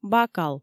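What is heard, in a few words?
"бакал" Komi, female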